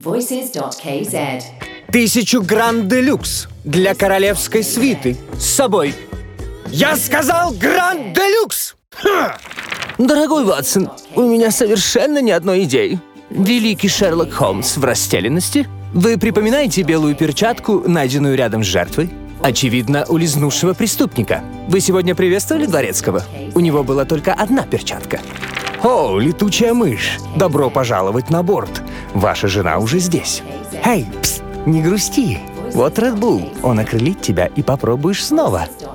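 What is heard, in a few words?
Russian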